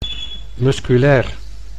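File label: "musculair", Dutch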